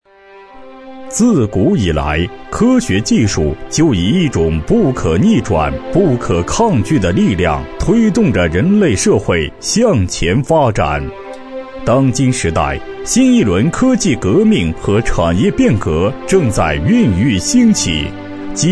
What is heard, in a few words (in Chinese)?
男声党政专题创新中国